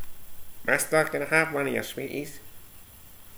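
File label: "mister", slang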